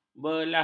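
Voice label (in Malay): "belah", Malay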